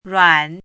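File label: "ruan3" Mandarin Chinese, dipping tone; chinese-voice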